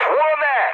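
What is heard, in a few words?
on fire, mood med, Polish speech; War Thunder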